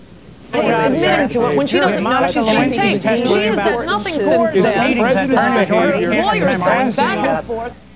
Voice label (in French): discution; loups